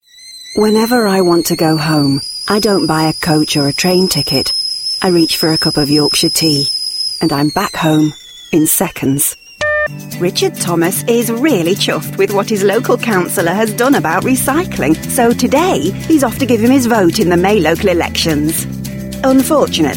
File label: english british voice over female commercial